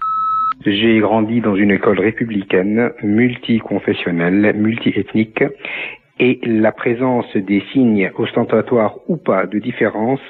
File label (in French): répondeur